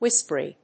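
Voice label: "WHISPERY", English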